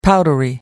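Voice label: "powdery", British English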